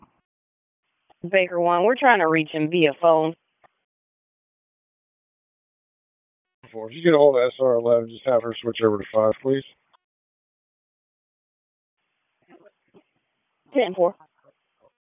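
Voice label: Police District Dispatch; Raleigh; Northeast; wake; p25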